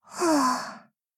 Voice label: sad